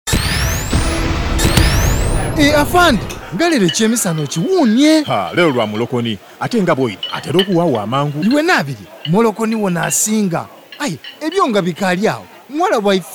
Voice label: Staying Cool, Lusoga, radio spots; adolescent health campaign radio spots; BOLD

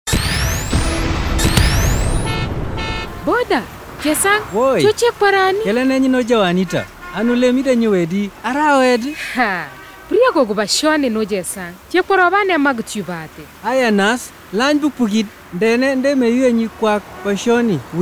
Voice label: KUPSABINY, radio spots; adolescent health campaign radio spots; BOLD; Taking Charge

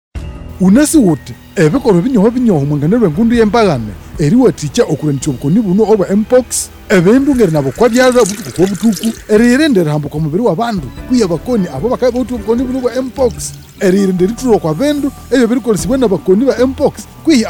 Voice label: Narrator RUKONZO, radio spots; MPOX; The MPOX Campaign Safe Circles

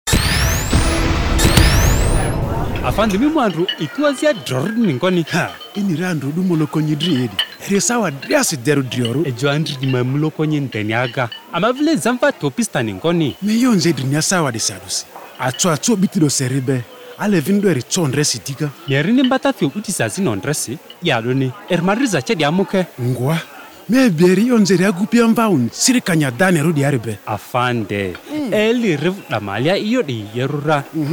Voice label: radio spots, LUGBARA; adolescent health campaign radio spots; BOLD; Staying Cool

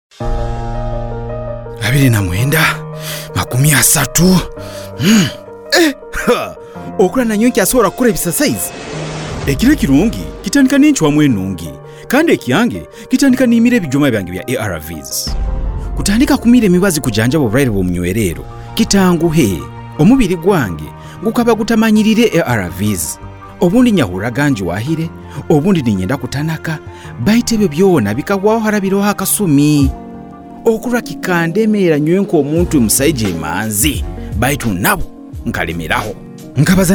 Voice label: Time Up HIV Spot New on Treatment Runyoro Rutooro

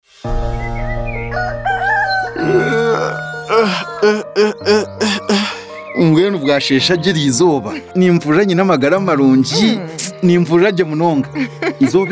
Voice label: HIV Spot Runyankore Rukiga, radio spot; Viral Load Suppression

